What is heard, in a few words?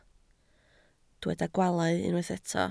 "gwaalau", Welsh